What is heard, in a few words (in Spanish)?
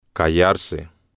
"callarse" Spanish